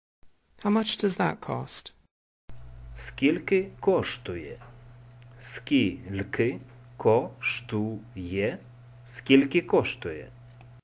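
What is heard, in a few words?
Ukrainian How much does that cost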